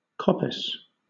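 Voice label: "coppice", British English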